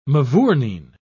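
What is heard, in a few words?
"mavourneen", British English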